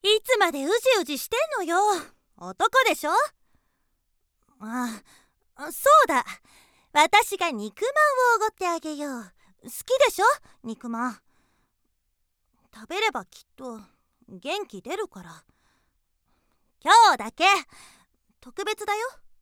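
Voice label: girl, Japanese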